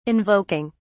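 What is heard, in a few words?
"invoking", British English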